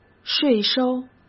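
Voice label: "税收", Mandarin Chinese; chinese-flashcards; hsk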